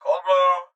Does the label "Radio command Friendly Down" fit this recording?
No